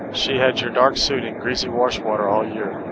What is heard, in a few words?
speech est